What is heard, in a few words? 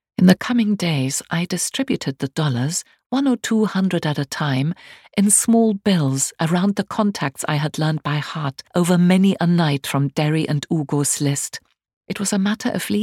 English, voiceover; audible penguin